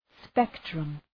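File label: "spectrum", English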